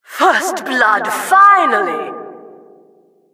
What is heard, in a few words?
announcer first blood